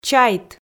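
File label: "чайт" Komi, female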